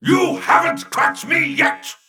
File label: Male Mech Play